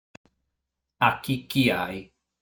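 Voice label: "Achichiai", Sardinian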